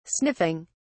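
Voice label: "sniffing", British English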